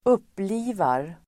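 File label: "upplivar", Swedish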